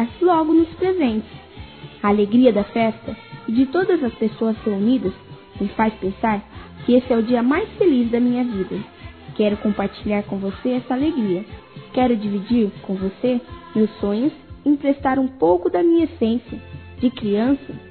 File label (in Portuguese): Natal Infantil Feminino, Telemensagens; Natal e Ano novo